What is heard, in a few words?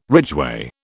"ridgeway", British